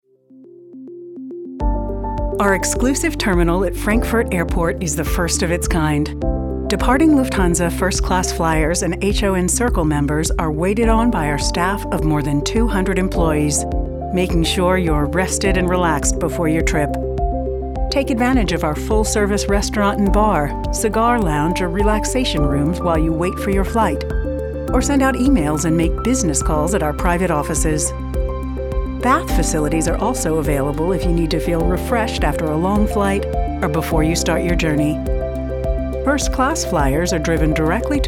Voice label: Corporate Narration Sophisticated